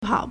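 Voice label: "pao3", Mandarin Chinese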